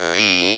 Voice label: speak and spell "V"; ALPHABET

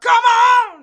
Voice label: diva "cmon", cheesy divas

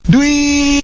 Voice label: "dwee", voice; single hits

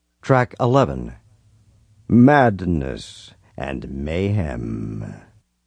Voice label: madness, Zany SFX Announcer

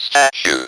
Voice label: speak and spell, "statue"; WORDS

